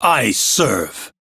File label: Crew Accept, Male, en; Railjack Crew; Arbiters Hexis